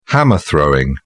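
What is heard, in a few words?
"hammer throwing", British English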